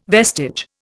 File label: "vestige", Indian